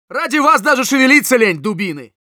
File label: Scout taunts, ru